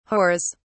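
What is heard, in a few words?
"whores", British English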